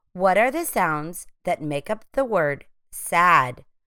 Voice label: sad phonemic segmentation